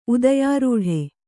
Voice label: "ಉದಯಾರೂಢ", Kannada